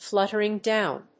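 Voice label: "fluttering down", English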